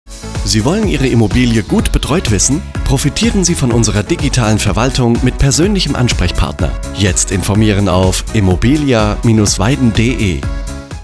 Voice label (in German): Funkspot; Immobilia Weiden GmbH